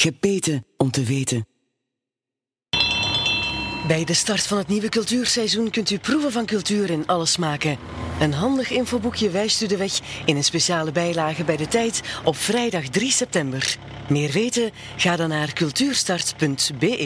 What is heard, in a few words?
Voice Over